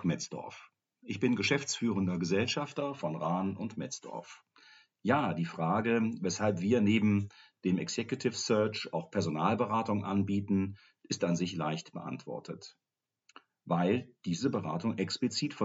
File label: Podcast